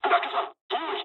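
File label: Japanese bailout mood low; War Thunder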